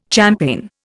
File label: "champaign", Indian